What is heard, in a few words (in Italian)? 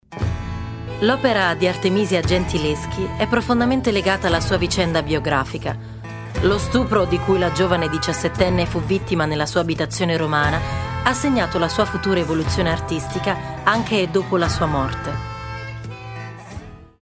Documentario; Artemisia Gentileschi